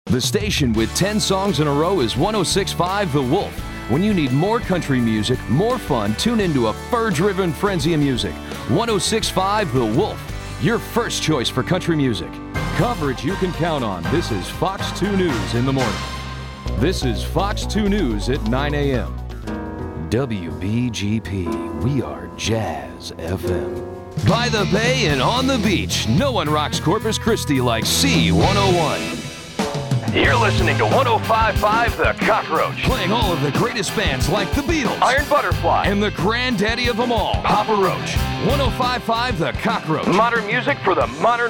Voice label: Imaging